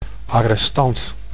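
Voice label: "arrestant", Dutch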